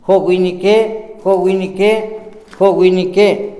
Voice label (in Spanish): cien, Tojolabal; Números